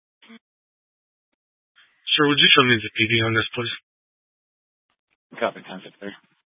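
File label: Police Dispatch, Scanner audio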